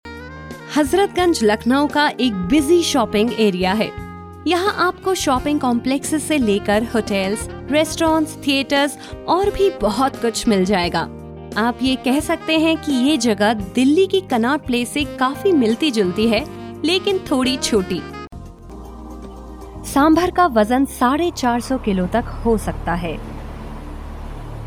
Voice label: Hindi Narration